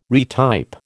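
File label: "retype", American English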